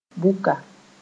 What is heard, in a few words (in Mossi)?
"buka", moore